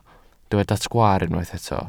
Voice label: "sgwaar", Welsh